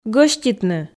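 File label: "гӧститны", Komi, female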